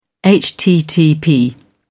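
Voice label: "http", British English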